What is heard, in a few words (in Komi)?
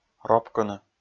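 "ропкыны", Komi, male; kpv audio dict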